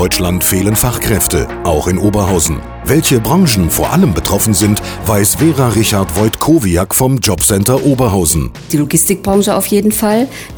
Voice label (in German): Radiospot Qualifizierung Infomercial; Radio Oberhausen